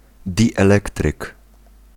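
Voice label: "dielektryk", Polish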